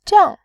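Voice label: "jiang", Mandarin Chinese, fourth tone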